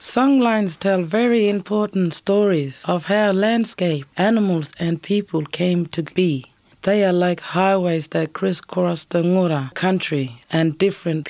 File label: Girl Narrator